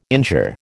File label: "injure", American